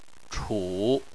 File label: "chu3", chinese; Chinese Surnames